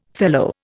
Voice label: "fellow", Indian

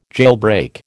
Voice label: "jailbreak", American

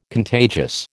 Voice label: "contagious", American

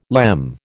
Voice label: "lemme", American

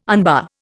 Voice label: "unbar", Indian

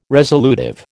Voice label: "resolutive", American

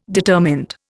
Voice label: "determined", Indian

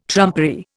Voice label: "trumpery", Indian

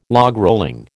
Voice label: "logrolling", American

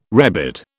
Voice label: "rebid", British

